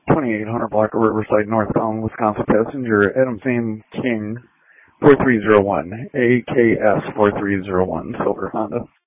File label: Sheriff Dispatch